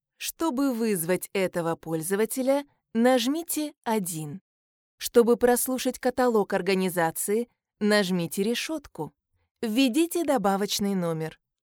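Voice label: Russian Russia female narrative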